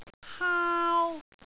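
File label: "haau1", Cantonese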